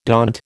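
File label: "daunt", American English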